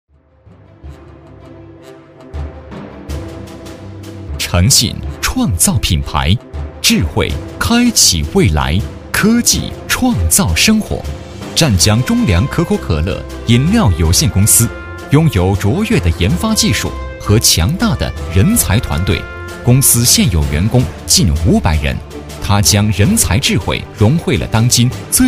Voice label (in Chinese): 男 专题 新闻出版业, 年轻; 新疆新闻出版业